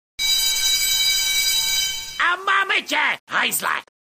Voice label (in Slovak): sms hlásky cz